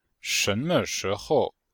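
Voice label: "shénme shíhou", Chinese; Cours de Chinois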